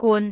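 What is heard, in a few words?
"gun6", Cantonese; microsoftHiuGaai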